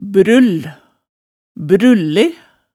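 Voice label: "brull, brulle", Hemsedal dialect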